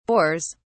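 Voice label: "bores", British English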